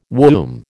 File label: "womb", American